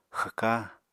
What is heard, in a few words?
"xwka", Apatani